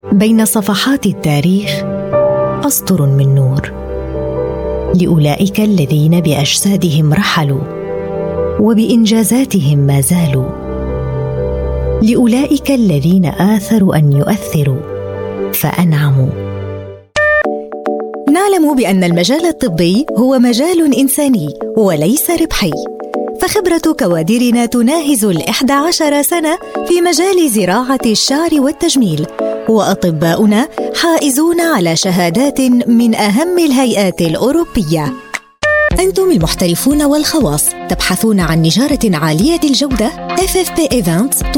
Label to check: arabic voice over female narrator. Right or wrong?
Right